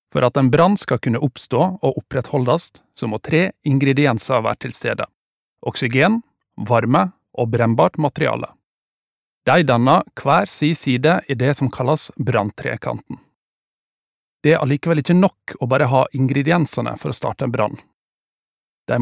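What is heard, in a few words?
no elearning, Norwegian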